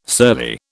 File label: "surly", British